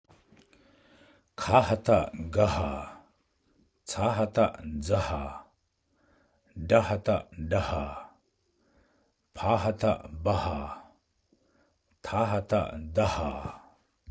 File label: The Five Heavy Sounding Letters, Tibetan; basictibetan